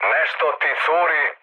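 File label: Serbian tank damaged mood med; speech; War Thunder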